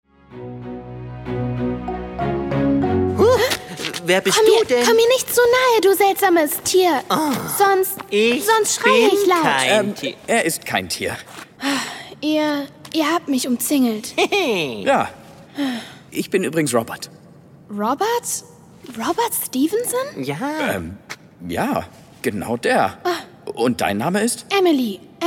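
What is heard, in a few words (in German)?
Hoerspiel, Children's Voice (Kinderstimme), Audio Drama, German; Ulfies fantastische Abenteuer; LOFT VOICES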